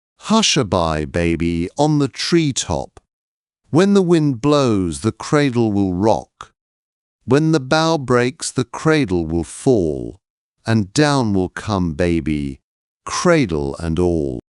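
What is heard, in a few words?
male British, classic rhymes; Hush a Bye Baby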